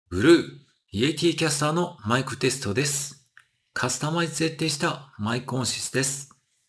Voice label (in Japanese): G Blue Yeti カスタマイズ設定の音質; ロジクール